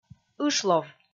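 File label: "ышлов", Komi, female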